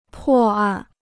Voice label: "破案", Chinese